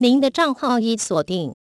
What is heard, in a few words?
Account Locked, zh TW; hackintosh; AXEFIAudio